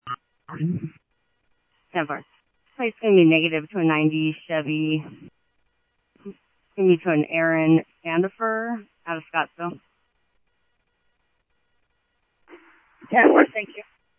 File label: PD Police, scanner audio